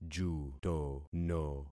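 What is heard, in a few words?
"jutono", concatenated syllables; Affixing experiment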